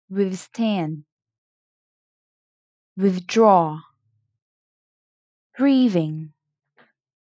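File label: Two Syllable Medial d, pronunciation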